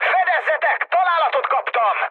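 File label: Hungarian aircraft damaged mood high, speech; War Thunder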